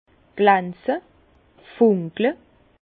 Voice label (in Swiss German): "glanze", Bas Rhin; vin; scintiller